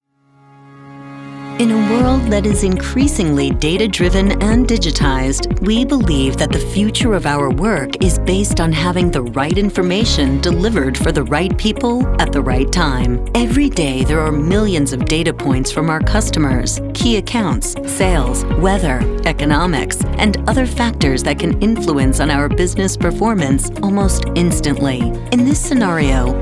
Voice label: Narration